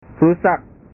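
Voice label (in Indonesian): "rusak", Indonesian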